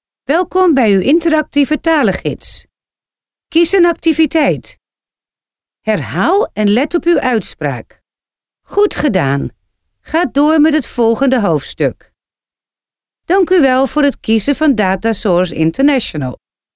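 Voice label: Dutch female corporate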